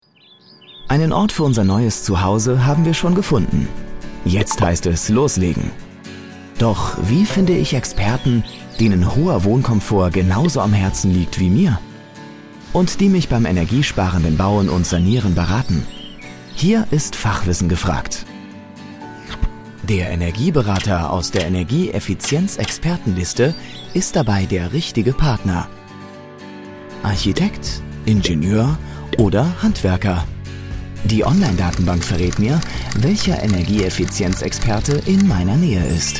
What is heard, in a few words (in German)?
Imagefilm; KFW BANK; LOFT VOICES